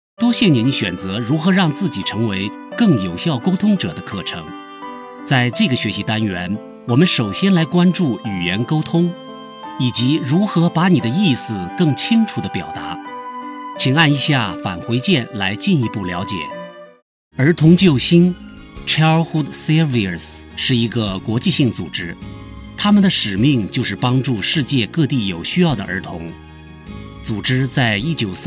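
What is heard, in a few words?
Mandarin Narration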